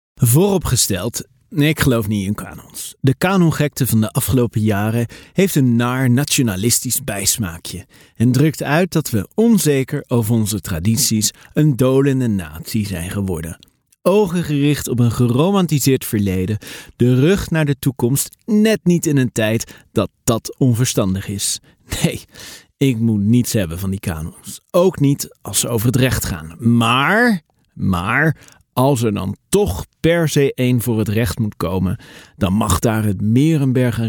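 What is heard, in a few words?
Dutch, male, audioguide; voice over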